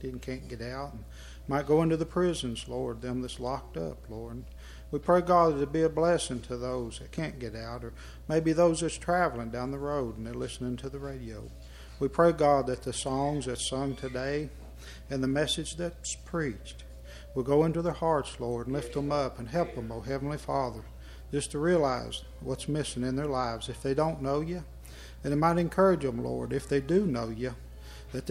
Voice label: Broadcast Prayer